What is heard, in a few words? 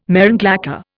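"marron glacé", Indian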